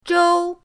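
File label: "zhou1", Chinese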